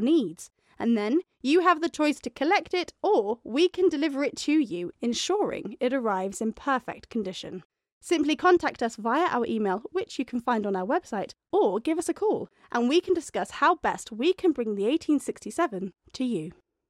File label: Outside catering VO